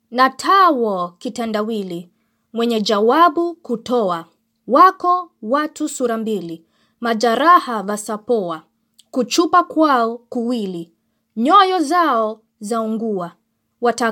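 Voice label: Swahili, Poetry; GoLocalise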